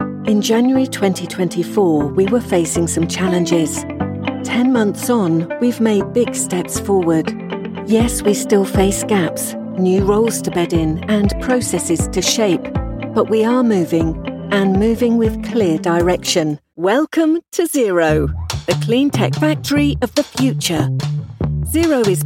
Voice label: corporate